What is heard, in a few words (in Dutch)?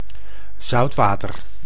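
"zoutwater", Dutch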